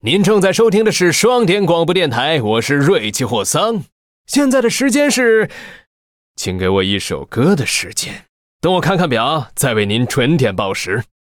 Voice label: Mandarin; 瑞奇（双点校园）